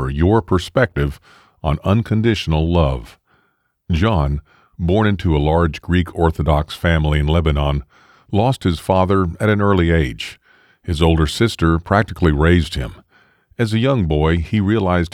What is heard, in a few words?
story